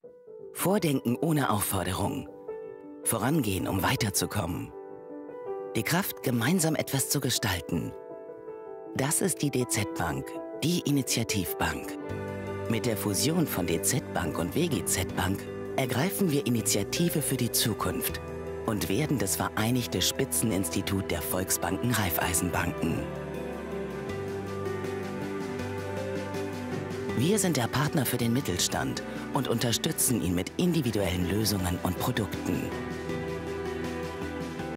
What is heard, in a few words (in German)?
Imagefilm; DZ Bank